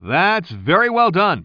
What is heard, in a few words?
commentator